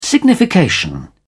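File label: "signification", English, British English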